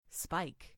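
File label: "spike", English; FoodChain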